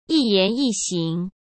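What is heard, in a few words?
"一言一行", Mandarin Chinese; hsk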